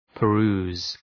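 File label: "peruse", English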